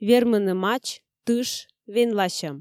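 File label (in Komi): "вермыны матч тыш венласьӧм", Komi, female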